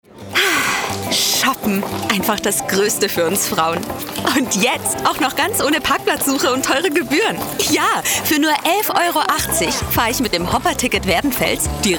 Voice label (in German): Freundlich